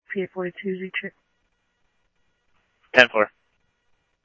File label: Scottsdale PD Scottsdale Police, scanner audio